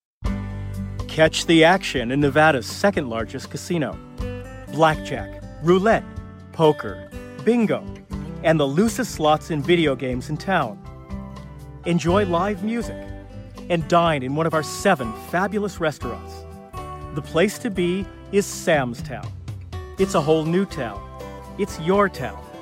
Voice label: usa man; MediaVoix; vice sample